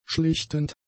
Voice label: "schlichtend", German; adjectives